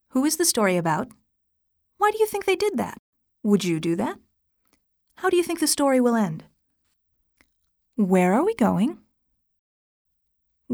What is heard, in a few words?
parental reads